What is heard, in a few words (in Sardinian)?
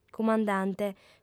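"cumandante", female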